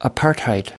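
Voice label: "apartheid", US English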